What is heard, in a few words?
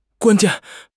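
"guan1 jian4", Chinese; 汉语口语新时代-初级下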